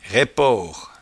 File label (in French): "raipport", patois jura